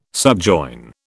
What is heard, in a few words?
"subjoin", British